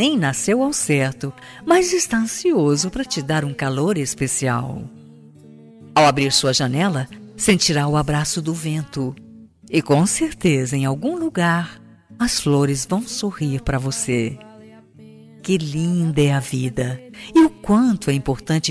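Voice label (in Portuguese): birthday COMPADRE female, telemensagem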